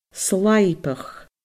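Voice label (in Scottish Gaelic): "slàibeach", Scottish Gaelic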